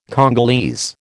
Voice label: "Congolese", American